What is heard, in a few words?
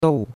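"dou4", Chinese; Cours-de-Chinois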